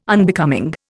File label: "Unbecoming", Indian